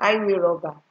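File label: "highway robber", Nigerian Pidgin, female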